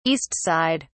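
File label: "east side", British English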